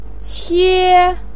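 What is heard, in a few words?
"qie", Mandarin pinyin, tone 1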